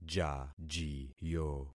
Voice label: "jajiyo", concat syllables; Affixing experiment

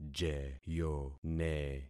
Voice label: "jeyone", concat syllables; Affixing experiment

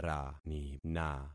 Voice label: "ranina", concat syllables; Affixing experiment

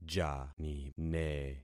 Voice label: "janine", concat syllables; Affixing experiment

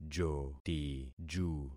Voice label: "jotiju", concat syllables; Affixing experiment

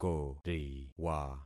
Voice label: "koriwa", concat syllables; Affixing experiment

